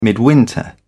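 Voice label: "midwinter", British English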